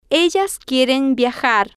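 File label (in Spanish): ellas quieren viajar, Spanish, female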